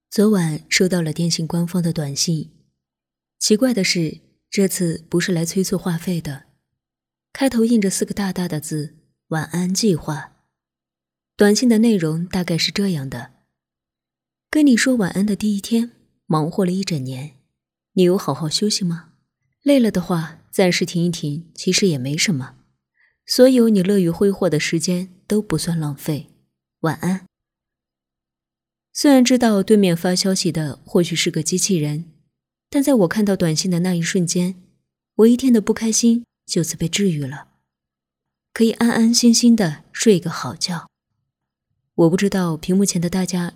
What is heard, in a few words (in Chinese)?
电台美文